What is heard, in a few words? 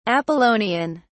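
"apollonian", British English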